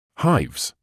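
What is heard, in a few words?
"hives", British English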